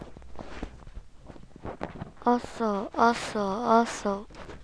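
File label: "also", spoken word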